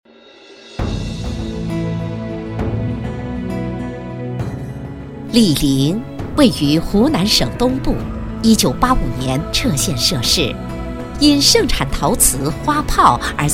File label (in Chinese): 女 专题 地区介绍; 醴陵